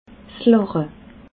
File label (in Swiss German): "lache", alsace